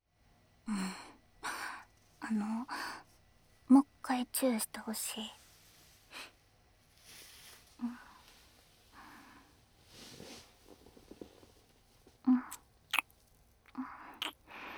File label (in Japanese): 漫画喫茶_囁き&耳舐め&キス, ASMR; 手コキ&さきっちょだけフェラ